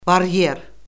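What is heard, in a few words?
"baryer", Azerbaijani; Orfoqrafiya Lüğəti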